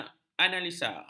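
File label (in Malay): "analisa", Malay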